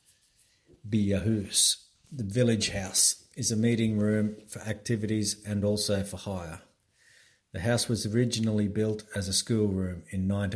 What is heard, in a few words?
English voice; Byahus